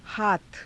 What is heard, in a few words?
"haatha", Hindi